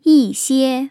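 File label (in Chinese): "yīxiē", Mandarin; tone changes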